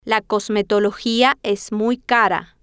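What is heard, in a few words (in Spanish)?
la cosmetologia es muy caro, spanish, female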